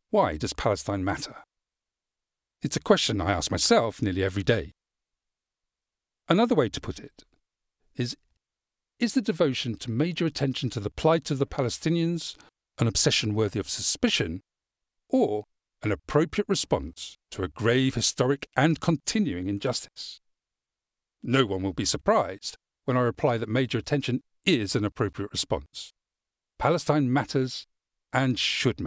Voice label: audiobook; The plight of Palestine

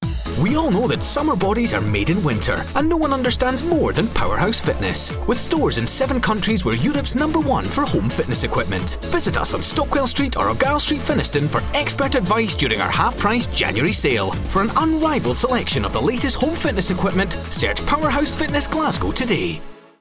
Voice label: Radio Advert; Powerhouse

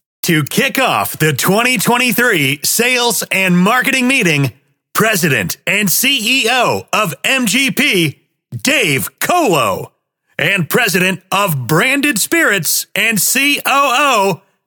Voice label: Voice of God

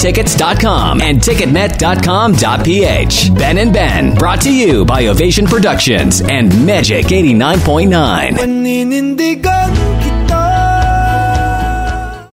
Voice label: Radio Commercial concert promo

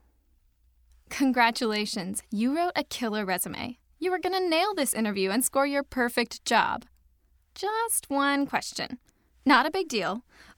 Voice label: Commercial; Mitchum

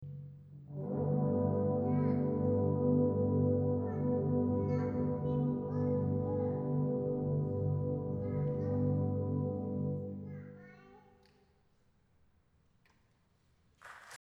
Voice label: Child talking during concert

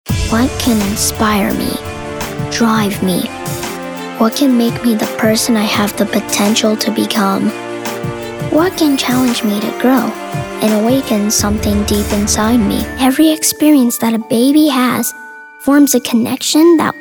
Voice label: Narration Demo

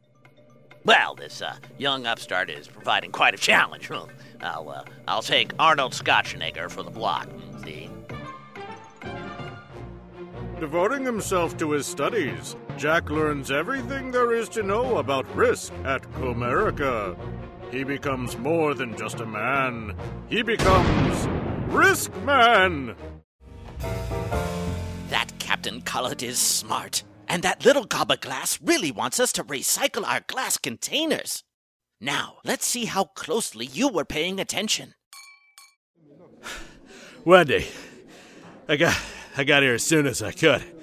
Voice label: Voice Characters

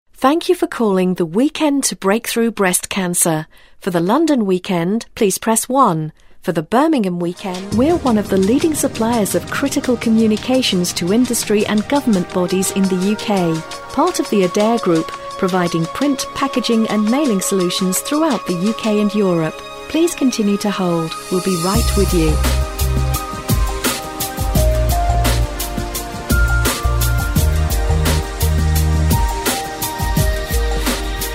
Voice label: female

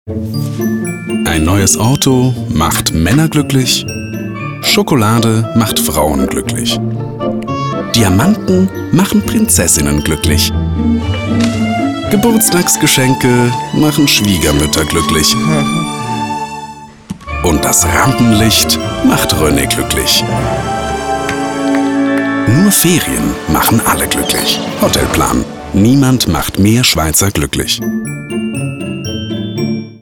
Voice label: TV-Spot; Hotelplan

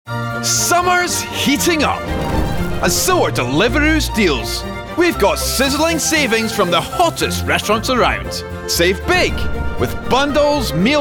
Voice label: VO Sassy, Punchy, Lively; Deliveroo

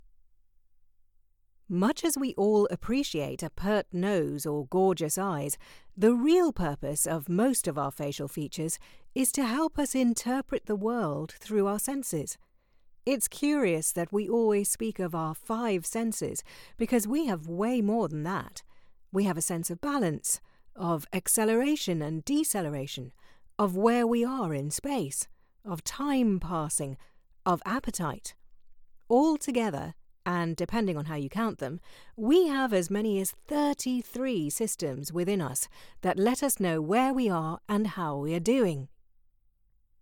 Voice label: Home Studio Sample Non Fiction

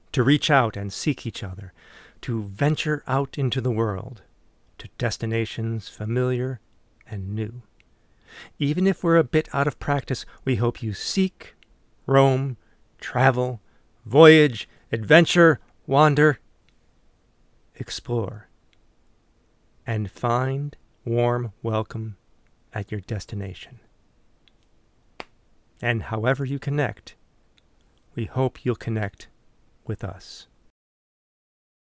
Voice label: VO Marketing Commercial

